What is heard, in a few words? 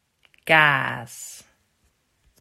"Kaas", Dutch